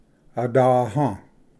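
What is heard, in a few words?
"adaha", Cherokee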